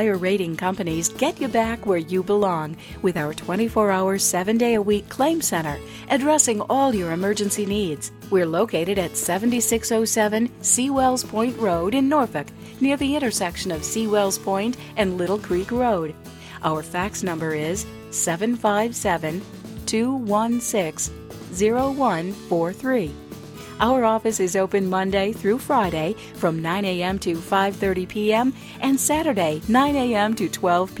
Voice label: general insurance female personalization